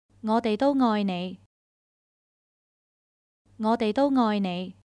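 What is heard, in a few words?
We all love you, Chinese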